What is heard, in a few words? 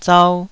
"zau2", taiwanese syllables